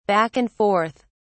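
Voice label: "back-and-forth", British English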